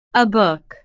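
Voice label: "a book", English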